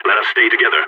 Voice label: radio bot regroup, balkan; Counter-Strike Global Offensive